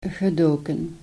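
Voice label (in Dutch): "gedoken" Dutch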